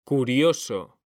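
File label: "CURIOSO", Spanish, male; mekanta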